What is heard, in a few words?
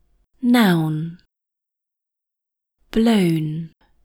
Mandarin, British accent; English Pronunciation Errors made by Mandarin Speakers